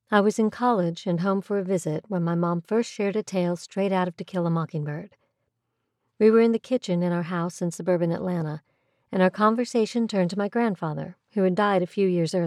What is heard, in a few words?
english american audiobooks